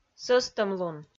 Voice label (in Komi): "сӧстӧмлун", Komi